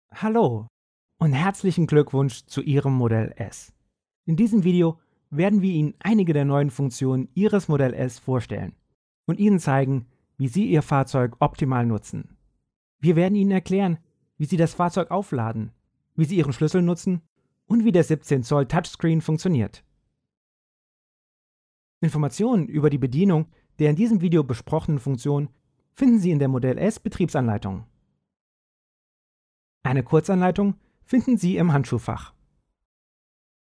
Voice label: DE Educational; Tesla Model S

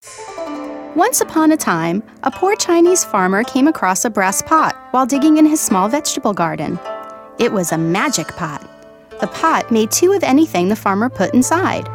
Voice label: Storytelling

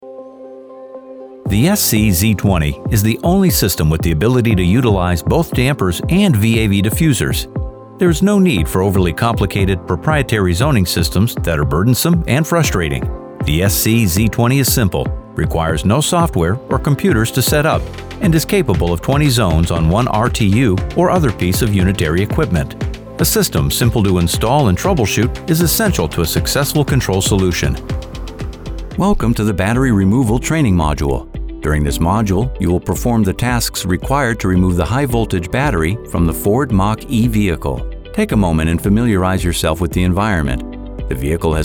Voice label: eLearning Explainer

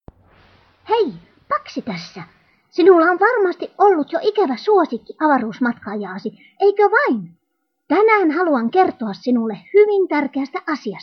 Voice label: cartoon character, Female Voice; Paxi

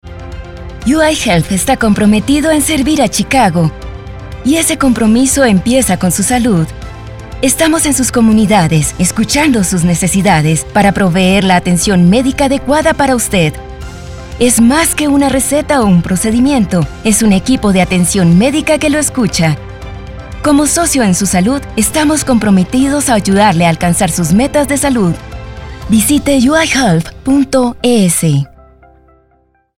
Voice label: Spanish Radio

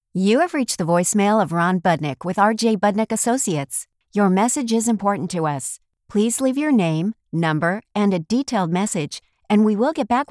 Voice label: Telephony Friendly Voicemail message

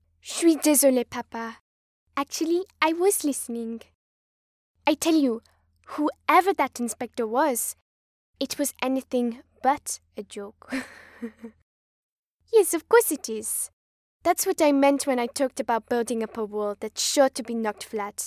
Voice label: Daughter French accent

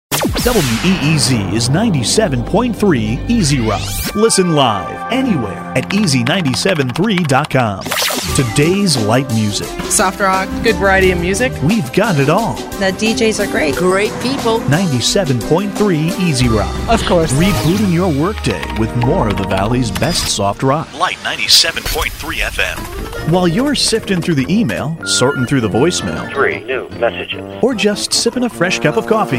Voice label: Imaging No Slate

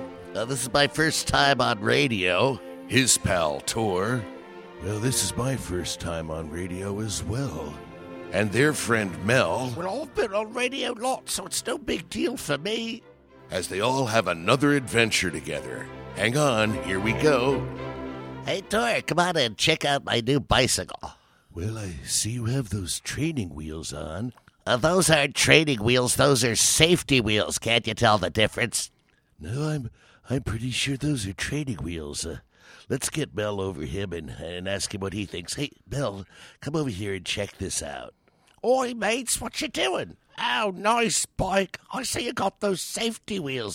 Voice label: Cartoon VO

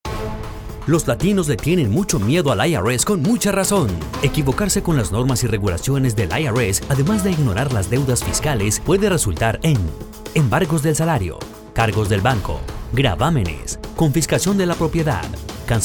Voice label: RADIO SPOT

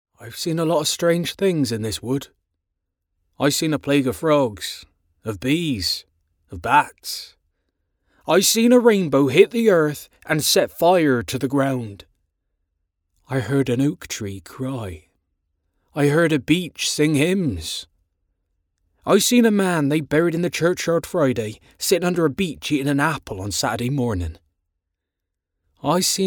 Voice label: Dramatic

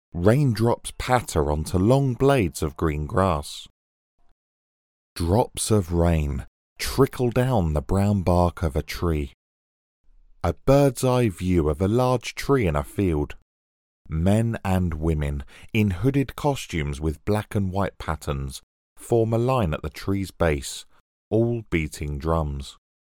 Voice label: Audio Description Reel

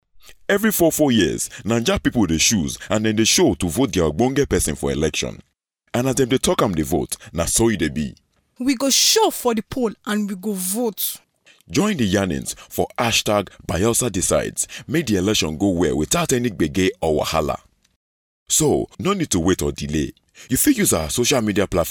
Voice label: PIDGIN; V.O; INEC; VOSA

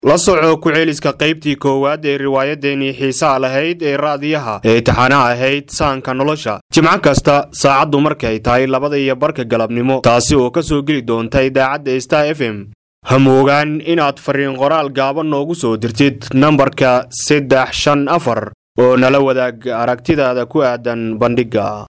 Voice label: Somali Male

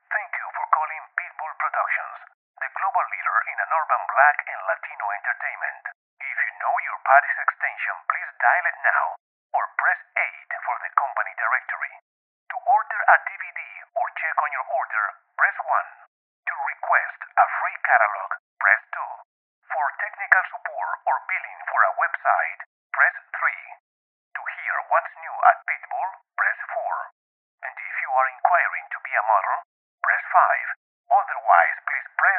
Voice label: ENGLISH VOICE MESSAGE

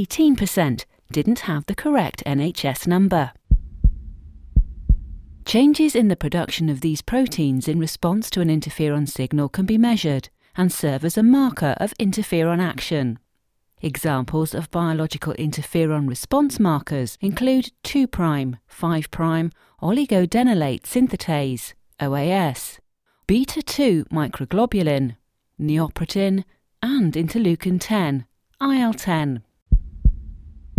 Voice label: Medical read

